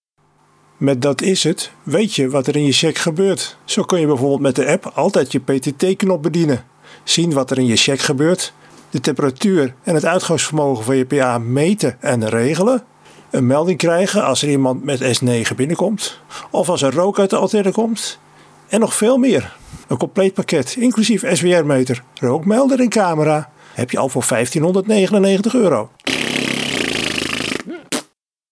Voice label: commercial parodie